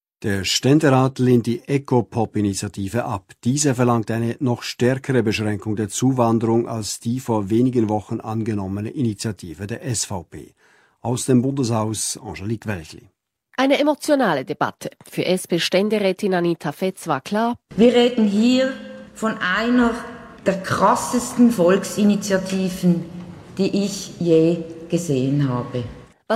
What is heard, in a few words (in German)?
Nachrichten; SRF1 12h; Ecopop